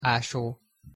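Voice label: "aso", Hungarian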